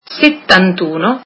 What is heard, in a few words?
seventy one, italian